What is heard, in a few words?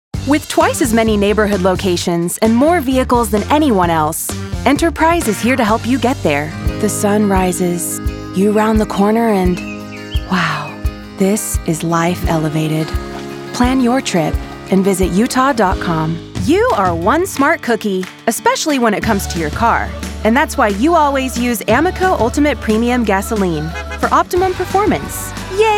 commercial